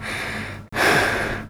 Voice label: female; oblivion